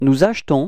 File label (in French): "acheter nous", frans